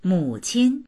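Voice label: "mu3qin1", Mandarin Chinese; 母亲; 母、母親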